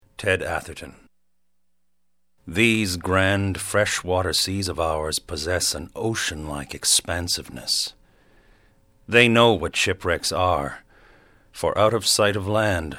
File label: narration male